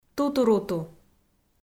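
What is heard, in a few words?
"тутуруту", Komi, female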